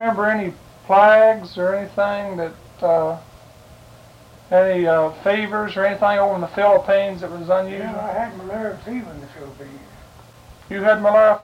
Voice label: Oral history with 81 year old male Jackson Kentucky, american english dialect